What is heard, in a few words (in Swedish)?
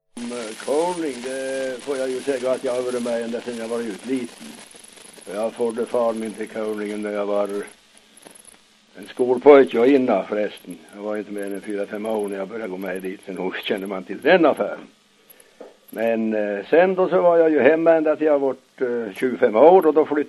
dialekter; Folkminnen